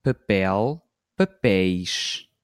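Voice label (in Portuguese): "papel ~ papéis", português europeu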